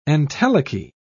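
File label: "entelechy", British English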